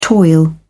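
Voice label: "toil", British English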